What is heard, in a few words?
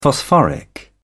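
"phosphoric", British English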